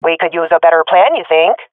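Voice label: round losing, swat female; vo; Counter Strike Model Pack; CS:GO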